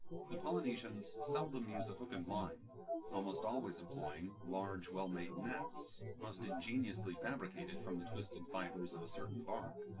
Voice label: test male synthconv